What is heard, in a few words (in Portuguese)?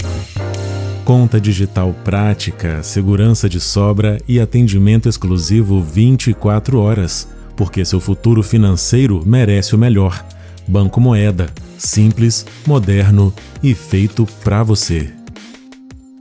LOCUCAO STANDARD COM TRILHA; Locutor profissional; Locutor profissional com mais de 15 anos de experiência. Faço locuções comerciais, podcast